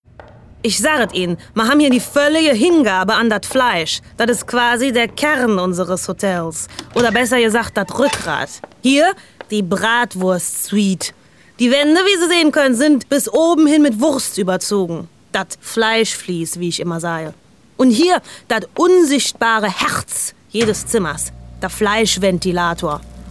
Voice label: koelsch

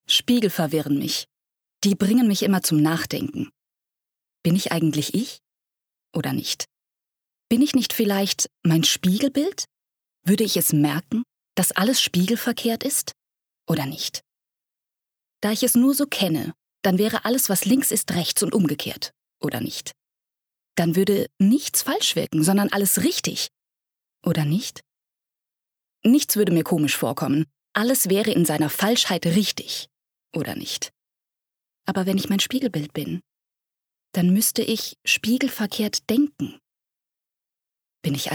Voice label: Innerer Monolog; LOFT VOICES

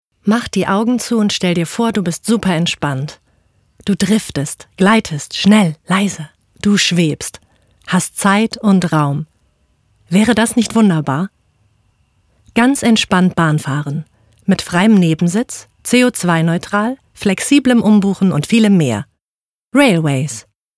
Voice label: Werbung natuerliche Stimme; LOFT VOICES

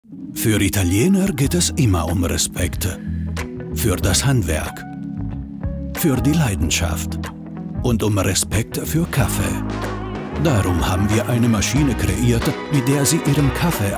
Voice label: TV Spot DE mit Akzent; Saeco Xelsis

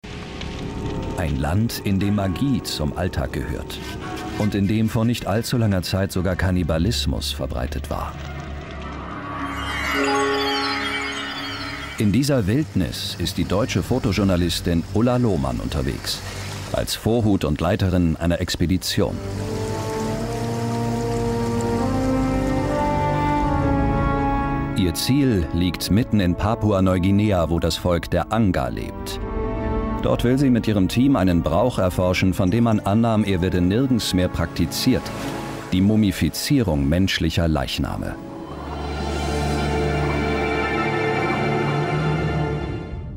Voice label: Reportage Kommentar Mumien

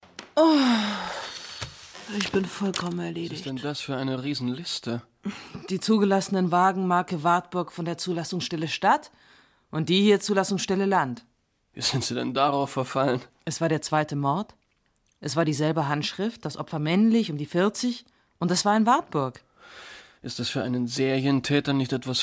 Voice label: Hörspiel; DeutschlandRadio; Wash Kill

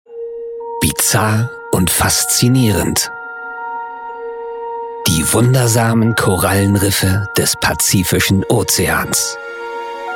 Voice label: Dokumentation Korallen

